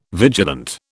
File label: "vigilant", British